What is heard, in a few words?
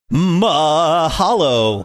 saying mahalo funny